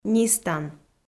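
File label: "нистан", Komi, female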